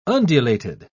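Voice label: "undulated", British English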